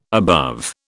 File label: "above", British